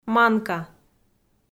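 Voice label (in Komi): "манка", Komi-Zyrian, female; kpv audio dict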